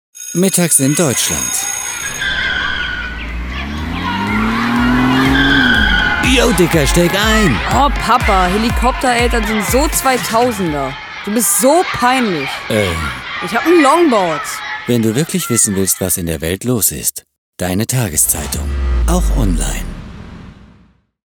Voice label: funkspot zeitung

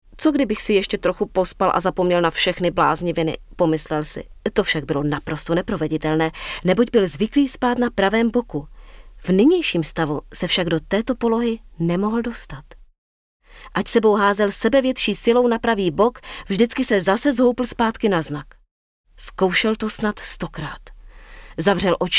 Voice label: hoerbuch

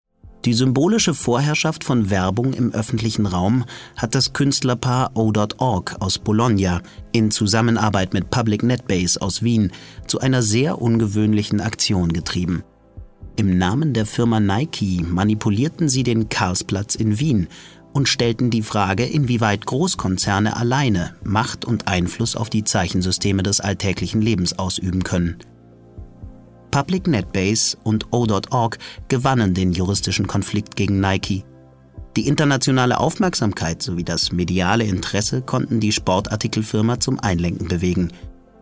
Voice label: doku; culturejam

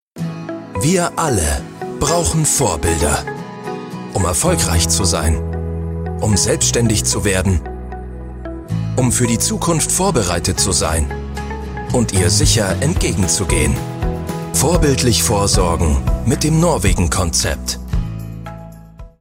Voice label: imagefilm; alte leipziger

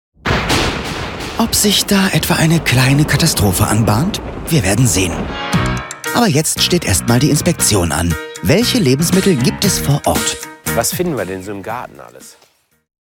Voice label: kommentar dokutainment kochen; Sprecher in Wien, Köln; Muttersprache Deutsch

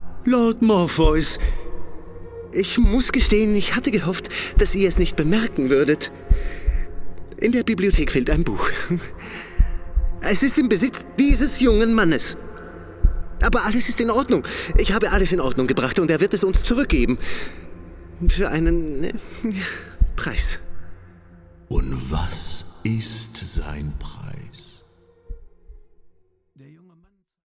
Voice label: Hörprobe Hörspiel

